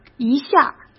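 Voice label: "一下儿", Mandarin Chinese; hsk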